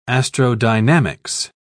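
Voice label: "astrodynamics", British English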